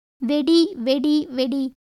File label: Tamil